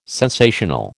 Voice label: "sensational", American